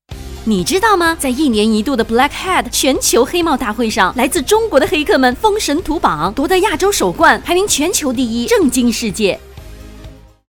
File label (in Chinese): 女 手游新闻 活泼甜美